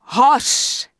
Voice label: Night Elf Female Hello; wowpreklad; czwow; NightElfVocalFemale